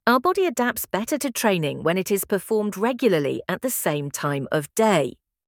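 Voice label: British female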